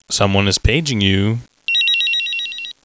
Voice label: paging

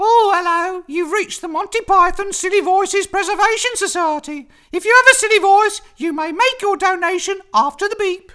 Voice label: silly voices

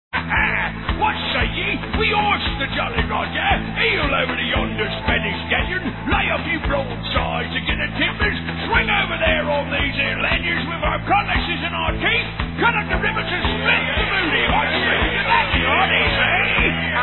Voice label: pirate

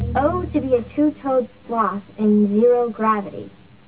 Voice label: poem

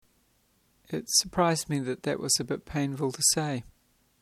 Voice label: surprised painful to say

